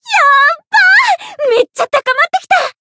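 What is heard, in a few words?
Voice variable chant, Japanese; millecha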